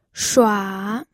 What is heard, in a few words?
"shua3", pinyin